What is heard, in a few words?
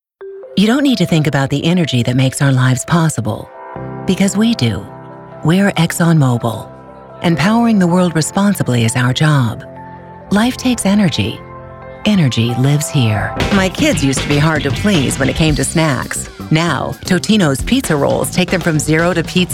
Voiceovers Samples